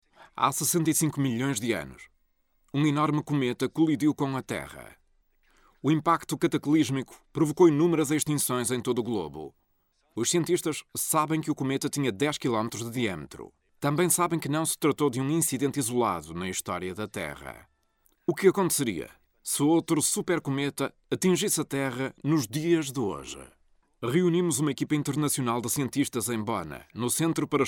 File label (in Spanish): locutor portugues; portugueses italia